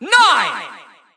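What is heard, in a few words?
"nine", English; cstrike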